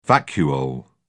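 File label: "vacuole", British English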